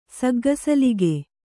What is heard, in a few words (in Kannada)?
"ಸಗ್ಗಸಲಿಗೆ", Kannada